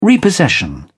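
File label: "repossession", British English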